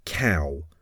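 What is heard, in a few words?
"cow", English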